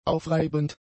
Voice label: "aufreibend", German; adjectives

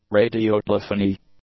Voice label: "radiotelephony", English; nouns

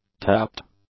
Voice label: "tapped", English; adjectives

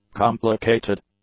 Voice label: "complicated", English; adjectives

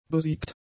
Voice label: "besiegt", german; adjectives

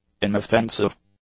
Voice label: "inoffensive", English; adjectives

